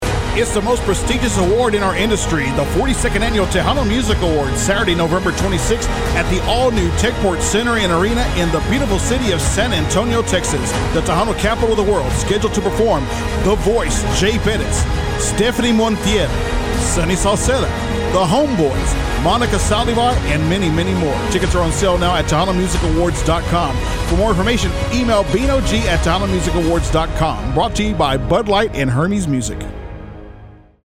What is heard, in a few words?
spot